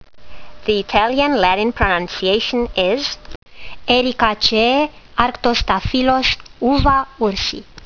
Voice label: latin; Arctostaphylos uva-ursi